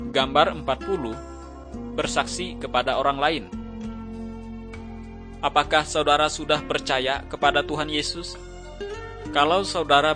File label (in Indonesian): kabar baik good news bahasa indonesia